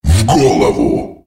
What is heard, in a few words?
"headshot", female